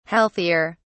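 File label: "healthier", British English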